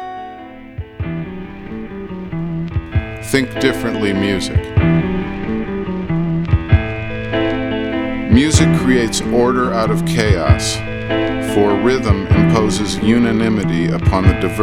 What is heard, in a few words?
Infomercial; Jim Jarmusch